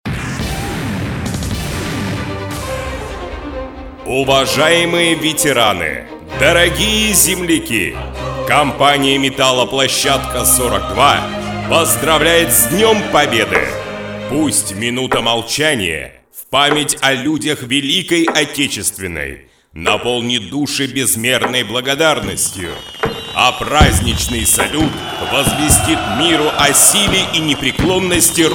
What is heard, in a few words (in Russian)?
diktor sssr